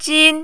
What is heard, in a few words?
"jin1", Mandarin